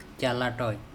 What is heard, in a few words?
"calaToi", Andamanese; ribs of the chest